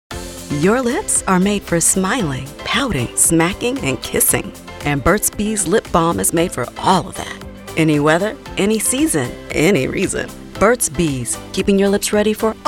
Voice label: Commercial Demo